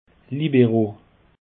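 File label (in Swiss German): "libero", Alsatian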